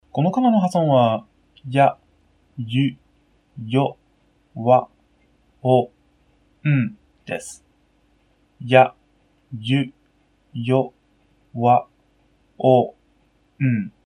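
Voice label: yayuyowawon, Japanese; Lesson 0: hiragana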